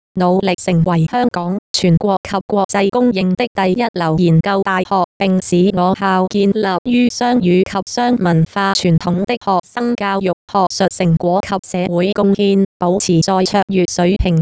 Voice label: Female